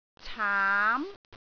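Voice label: "caam2", Cantonese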